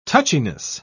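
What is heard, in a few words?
"touchiness", English, British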